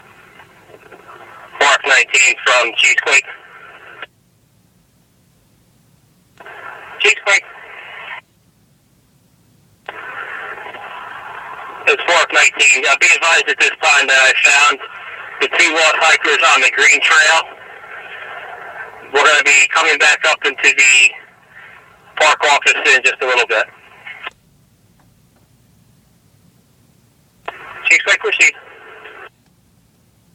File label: VSELP Decoded; decoded audio